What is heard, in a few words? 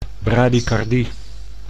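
"bradycardie", Dutch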